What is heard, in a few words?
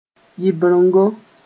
"yɩbrengo", Mooré